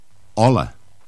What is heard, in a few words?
catalan hello